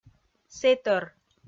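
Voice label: "сэтӧр", Komi, female; kpv audio dict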